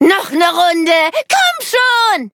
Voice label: defeat, de; Cookie Run